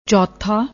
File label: "choatha", Punjabi; ਚੌਥਾ